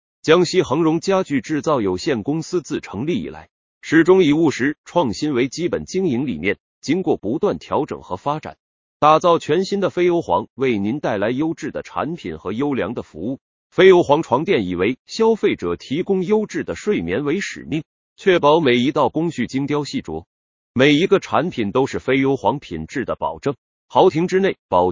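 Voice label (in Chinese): 专题配音，声音雄浑大气、知性温暖, 男 青年 普通话; 江西恒荣家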